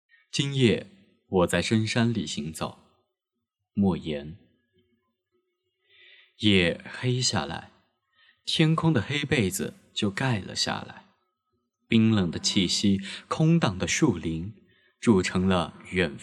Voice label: 散文阅读; 莫言

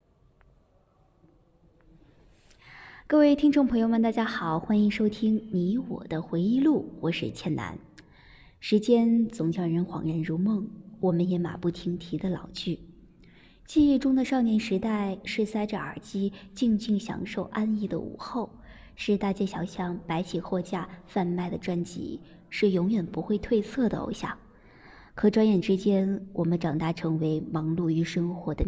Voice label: 配音

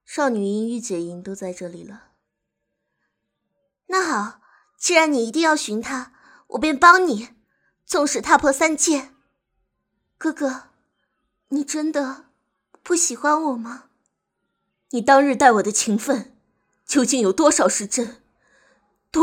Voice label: 简单少女御姐

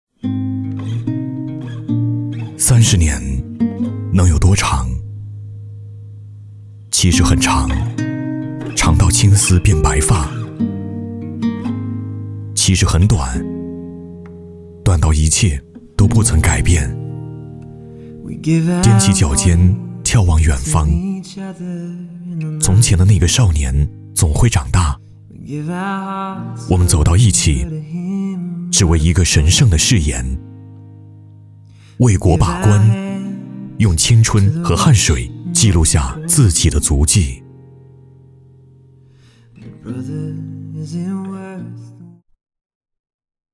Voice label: 温暖走心旁白

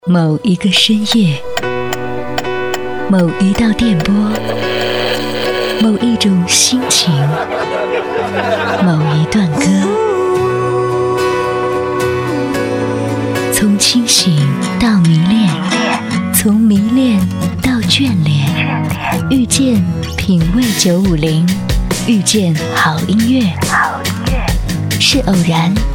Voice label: 电台节目片头

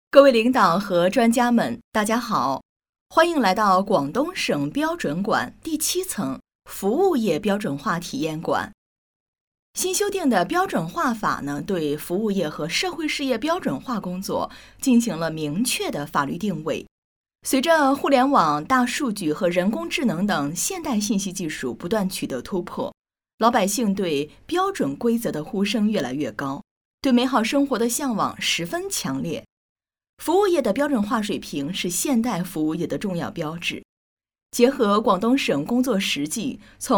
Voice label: 稳重知性亲切讲解汇报; 服务业体验馆

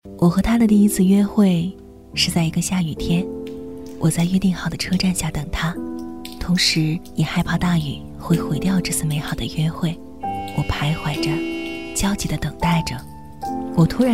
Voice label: 女; 娃哈哈

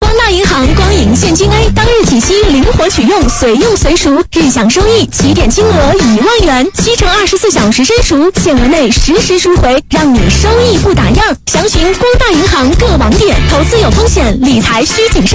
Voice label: 广告 配音

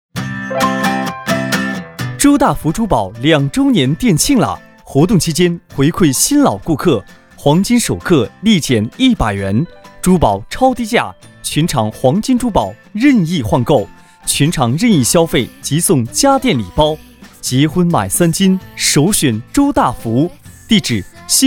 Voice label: 珠宝店欢快

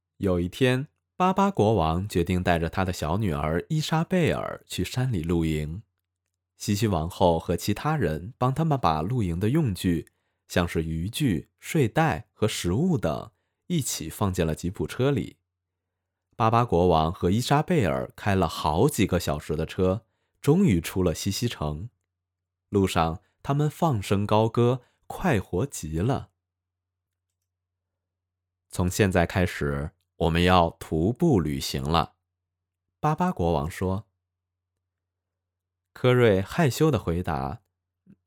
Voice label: 儿童读物

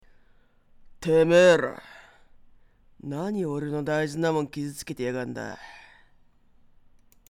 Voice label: 男 样音

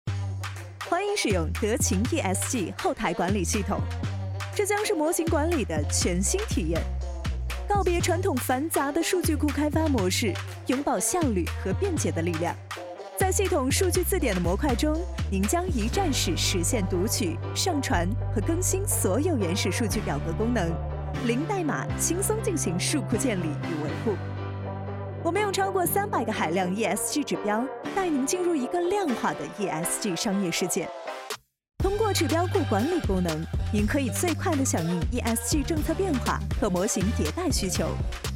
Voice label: 广告自信时尚干练办公系统

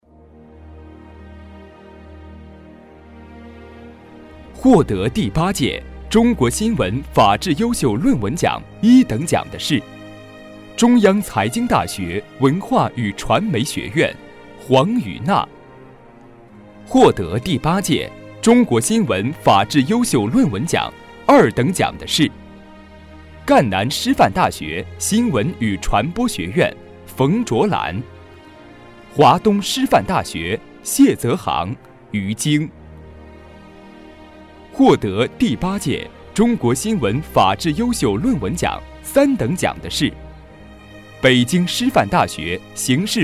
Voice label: 颁奖典礼类配音

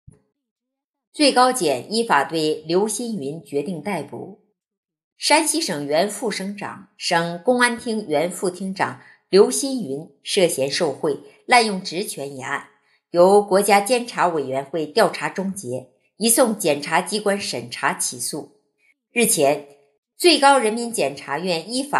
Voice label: 新闻练习稿

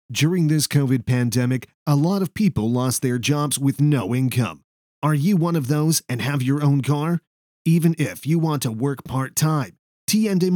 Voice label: 美式英语社会热点

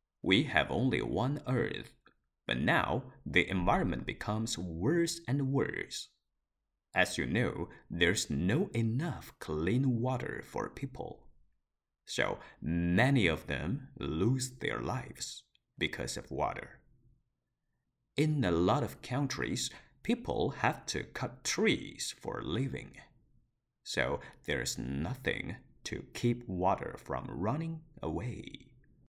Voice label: 英文纪录片

